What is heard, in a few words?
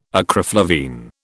"acriflavine", British